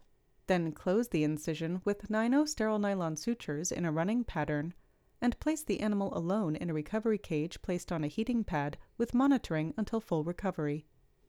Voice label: Voiceover